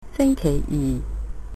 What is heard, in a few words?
tuesday, cantonese